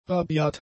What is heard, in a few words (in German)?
"rabiat", German; adjectives